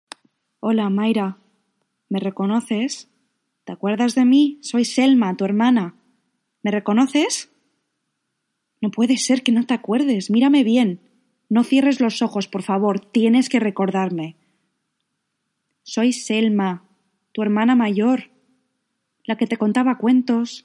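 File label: Spanish, female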